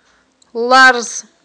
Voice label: "ларз", Aghul

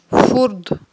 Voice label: "фурд", Aghul

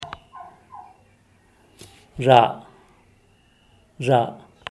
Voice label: "zha'", abajo de, zapoteco teotitlan

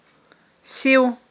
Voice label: "сив", Aghul

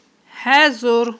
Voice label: "хІезур", aghul

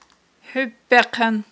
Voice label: "хIуьппехъан", Aghul

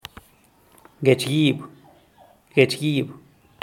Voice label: "gech gib", zapoteco teotitlan; clavo

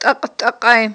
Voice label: "тIакь-тIакьай", Aghul

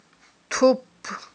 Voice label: "тупп", Aghul

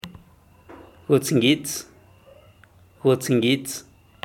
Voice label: "gutsngits", zapoteco teotitlan; amarillo claro

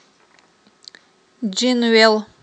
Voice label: "джинвел", Aghul

